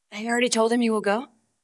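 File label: Voice Acting es Female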